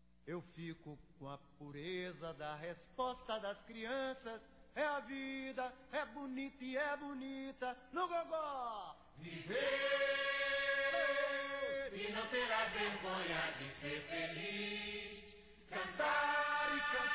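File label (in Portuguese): parabéns gravidez, feminino; Telemensagens; Parabenização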